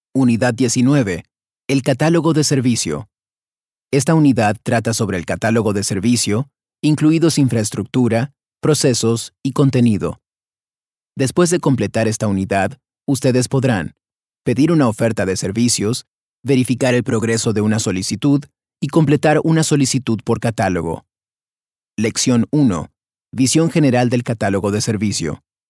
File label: Latin American Spanish eLearning